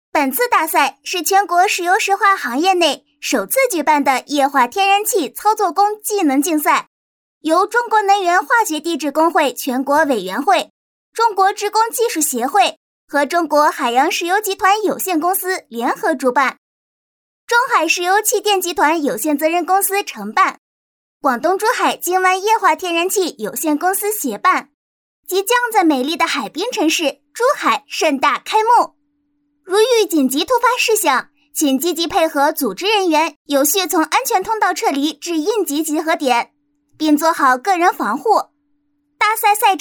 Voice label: 女童

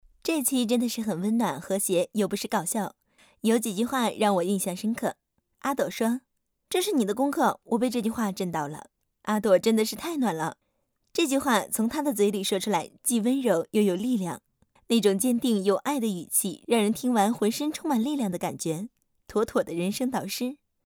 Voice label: 综艺解说

